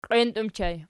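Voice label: "qienti" ergative plural, Archi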